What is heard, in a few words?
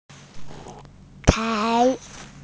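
"chai2", Mandarin Chinese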